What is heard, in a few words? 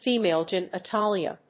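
"Female genitalia", English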